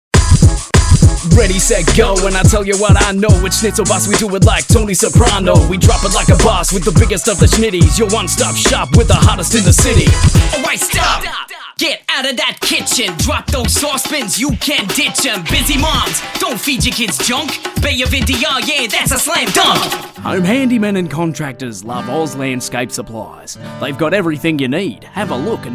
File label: jingle voices